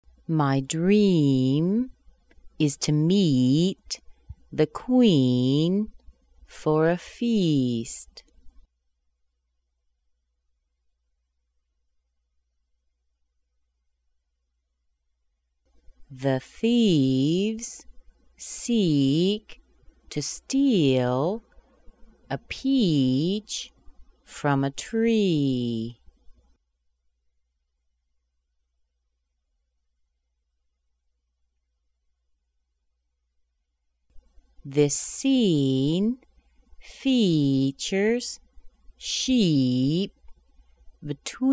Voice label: iy sentences slow, American accent